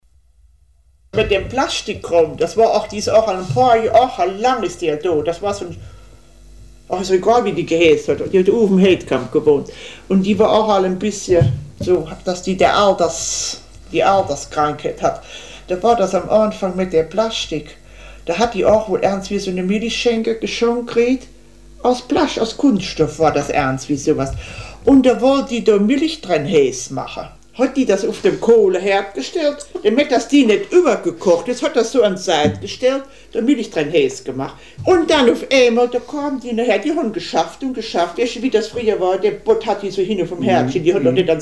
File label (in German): inseldialekt; louisendorf; sprache